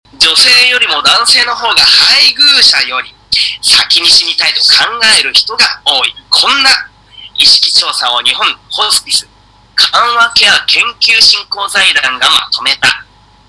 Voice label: rakugo